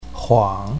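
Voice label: "huang3", Chinese pinyin, third tone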